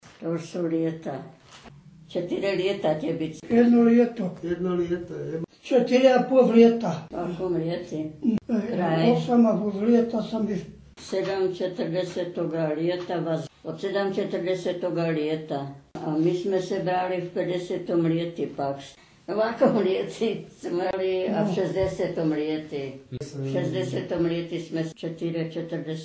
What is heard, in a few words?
Long and rising ie: liéto 'year'